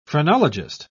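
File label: "phrenologist", British English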